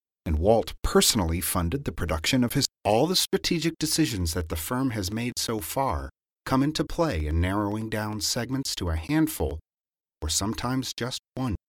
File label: bad gating